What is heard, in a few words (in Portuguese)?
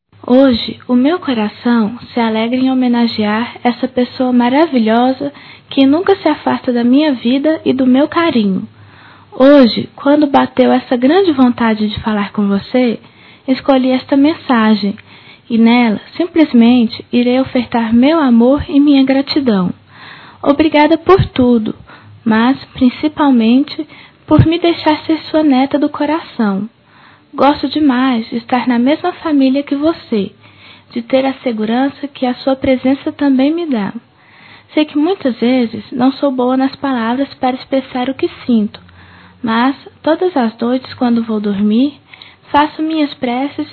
voz infantil; Dia das Maes; Telemensagens 2017